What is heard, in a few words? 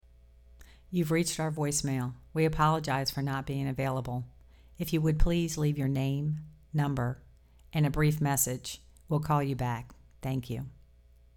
voicemail; NAHotline